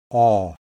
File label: open back rounded, IPA sounds